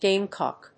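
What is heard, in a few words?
"gamecock", English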